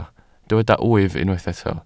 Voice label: "wyf", Welsh